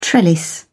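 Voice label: "trellis", British English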